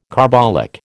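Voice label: "carbolic", American English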